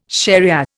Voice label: "shariah", Indian